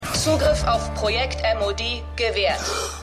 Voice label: Computerstimme; Black Lightning

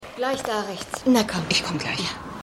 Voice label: Frau; Bei Sally; Silent Witness

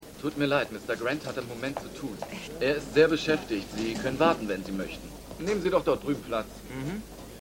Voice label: Reporter

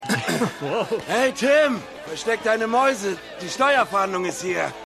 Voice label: Betrunkener Freund

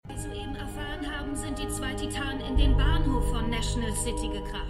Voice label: Nachrichtensprecherin; Supergirl

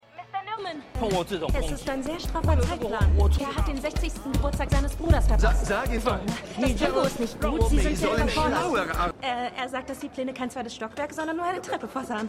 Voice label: Chinesische Dolmetscherin; Stimmproben; WeCrashed

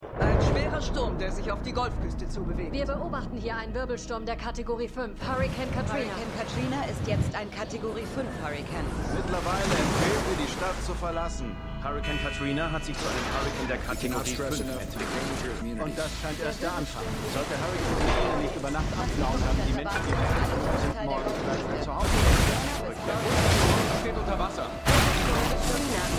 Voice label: Nachrichtenstimme; MEMORIAL HOSPITAL - DIE TAGE NACH HURRIKAN KATRINA (DF 2022); Five Days at Memorial

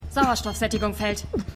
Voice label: Assistentin; AtlantaMedical

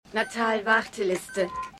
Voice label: Computerstimme; Outer Limits

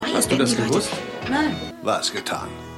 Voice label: Mann Frau Bargast; AusgerechnetAlaska

